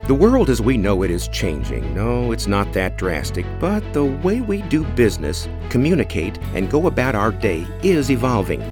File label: Conversational